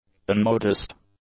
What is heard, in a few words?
"unmodest", English; adjectives